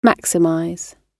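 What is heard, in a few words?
"maximize", British English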